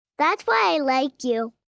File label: Thats Why I Like you, 1 girl, 5 yrs; Endearment